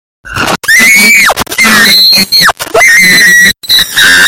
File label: loud man pancakes